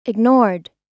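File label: "ignored", US English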